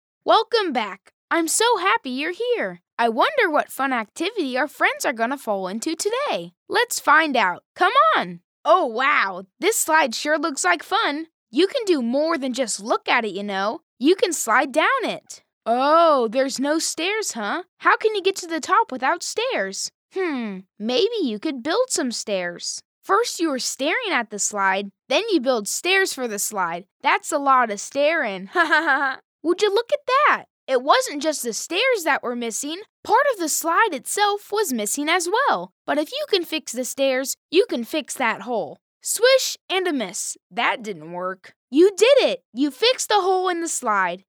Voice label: narration

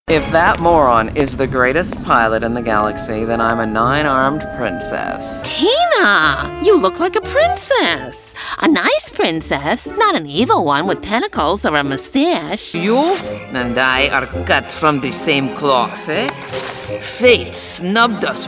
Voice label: Animation

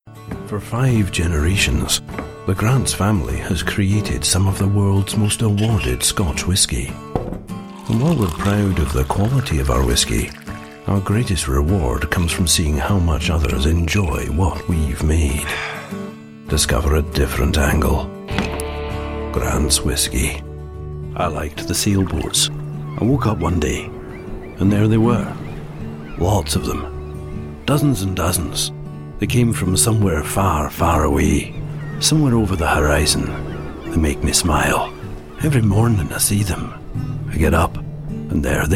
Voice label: Narration Scottish

